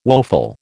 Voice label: "woeful", American